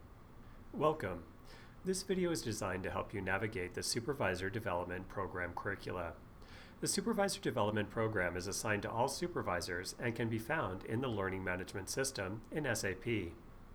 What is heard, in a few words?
Title Narration